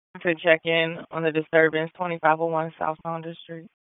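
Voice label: Raleigh Police Southeast District Dispatch, p25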